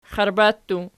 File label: xiarba pl, archi